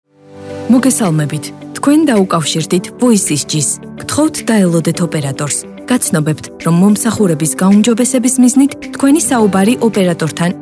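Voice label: female, IVR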